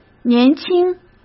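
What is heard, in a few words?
"年轻", Mandarin Chinese; hsk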